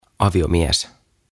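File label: "Aviomies", Finnish; Husband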